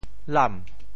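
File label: "lam2", Teochew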